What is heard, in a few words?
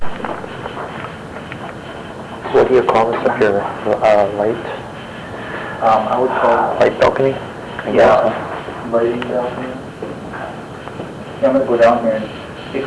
Whisper in Lighting Rack; EVP